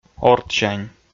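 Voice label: "ордсянь", Komi, male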